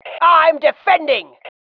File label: iamdefending, FEM